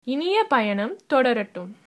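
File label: bon voyage, tamil, female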